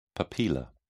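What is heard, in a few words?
"papilla", British English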